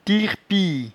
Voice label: "tirepie", patois jura